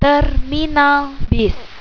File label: "Terminal bus", Indonesian